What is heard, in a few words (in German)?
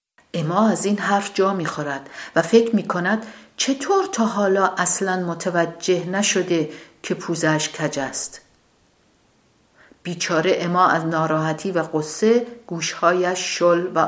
farsi